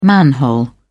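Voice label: "manhole", British English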